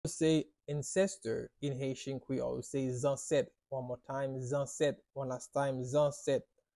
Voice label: "Zanset" Haitian Creole pronunciation by a native Haitian Creole tutor; How to say Ancestor in Haitian Creole